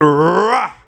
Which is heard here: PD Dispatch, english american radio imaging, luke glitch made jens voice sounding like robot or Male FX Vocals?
Male FX Vocals